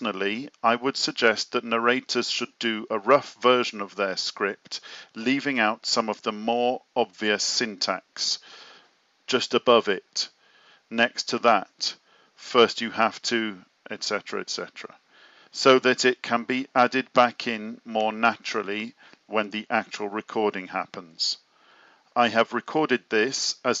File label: over scripted screencast narration